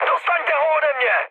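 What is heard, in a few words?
aircraft damaged, Czech, mood med; speech; War Thunder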